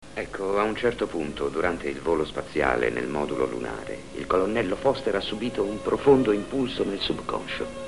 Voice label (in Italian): voci italiane; Jackson; Kill Straker